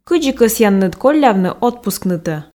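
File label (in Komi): кыдзи кӧсъянныд коллявны отпускнытӧ, Komi, female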